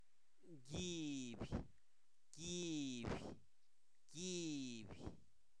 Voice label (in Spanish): Zapoteco, santo domingo albarradas; INALI; cuerpo; tendón